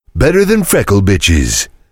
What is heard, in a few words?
heavy jeers; tf; duke